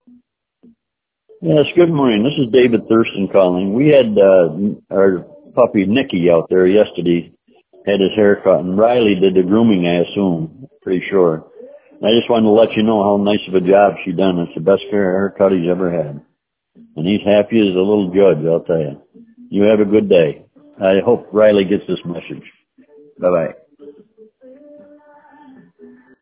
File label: voicemail